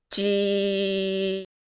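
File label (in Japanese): "ち", Japanese syllable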